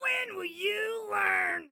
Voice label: Taunt Learn, Excited Guy